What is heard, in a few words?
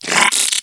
Zombie